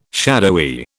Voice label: "shadowy", British